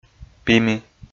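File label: "пими", Komi, male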